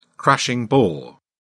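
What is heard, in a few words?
"crashing bore", English, British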